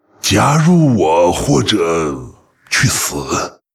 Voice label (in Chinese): pissed, zh; Varimathras